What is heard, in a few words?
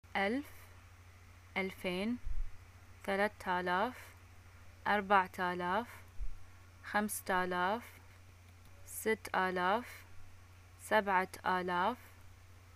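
numbers 1 10, Bahraini arabic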